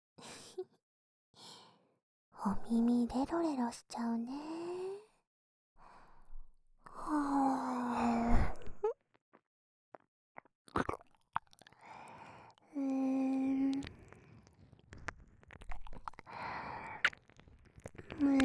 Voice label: 耳舐め, ASMR; 即抜き！まみこ

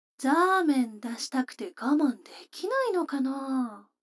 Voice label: 女の子 台詞

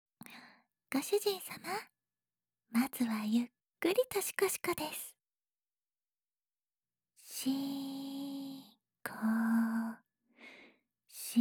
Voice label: 囁き連呼

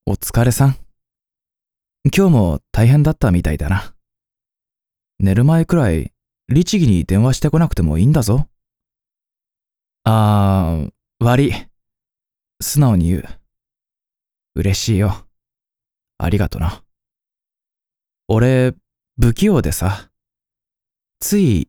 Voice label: 「おやすみ」ツンデレ

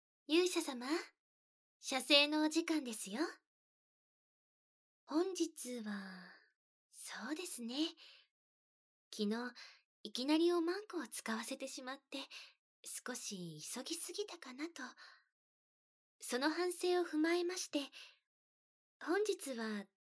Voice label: あまあま誘惑耳舐め手コキ, ASMR; SE控えめVer

